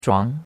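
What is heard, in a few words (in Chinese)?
"zhuang2" Chinese; Cours de Chinois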